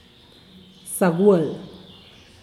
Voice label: zaá gual, parents in law, Santiago Matatlán Zapotec; consuegros